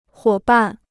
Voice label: "伙伴", Chinese

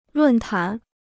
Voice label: "论坛", Chinese

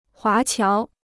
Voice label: "华侨", Chinese

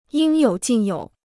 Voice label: "应有尽有", Chinese

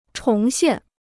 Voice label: "重现", Chinese

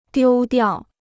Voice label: "丢掉", Chinese

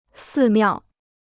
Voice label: "寺庙", Chinese

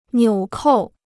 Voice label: "纽扣", Chinese

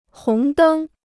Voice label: "红灯", Chinese

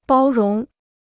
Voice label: "包容", Chinese

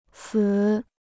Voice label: "词", Chinese

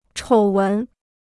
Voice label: "丑闻", Chinese

